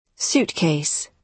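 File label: "suitcase", British English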